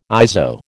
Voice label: "ISO", American